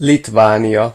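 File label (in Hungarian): "lithuania", Hungarian; gcompris; geography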